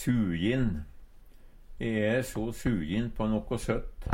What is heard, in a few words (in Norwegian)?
sujin, Numedalsmål